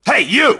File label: hello sir, grunt; svencoop; st allies; nimble grunt